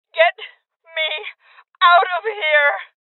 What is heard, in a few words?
Voices Injury Female; rebel cops 2019 gamerip